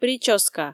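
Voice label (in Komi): "прическа", Komi, female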